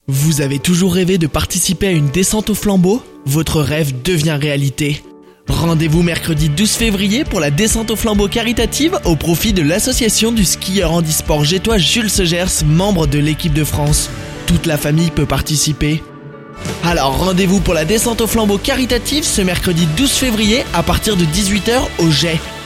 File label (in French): spot descente flambeaux caritative